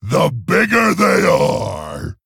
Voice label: Male Orc

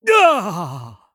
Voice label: Male Human Death

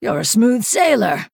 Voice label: Female Night Elf Well Played

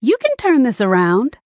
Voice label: Female Human Behind; Bacon Shop Bob

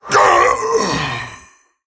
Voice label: Male Tol'vir Death

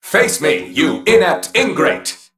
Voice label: Male Mech Play

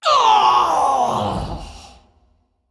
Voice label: Female Dragon Death

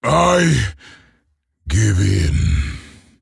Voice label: Male Orc Concede; Drek Thar

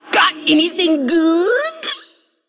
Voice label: Female Forsaken Attack

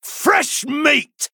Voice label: Male Human Attack

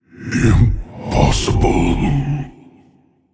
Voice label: Male Eternal One Death

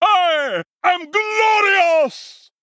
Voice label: Male Shield

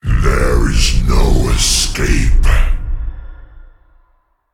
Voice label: Male Demon Bark

